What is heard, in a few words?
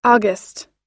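"august", US English; August – Agosto; Datas em Inglês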